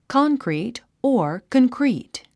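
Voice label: "concrete", English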